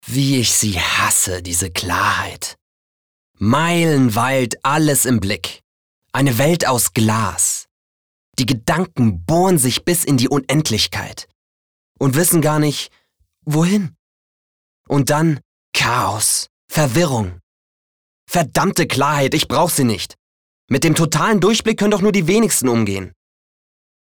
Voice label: Energisch; Klarheit

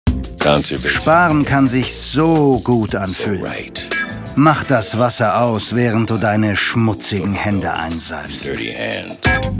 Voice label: Fremdtake Sinnlich

